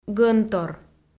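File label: "гӧнтор", Komi, female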